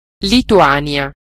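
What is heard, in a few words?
"lithuania", Italian; gcompris-data; geography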